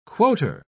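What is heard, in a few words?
"quoter", English, uk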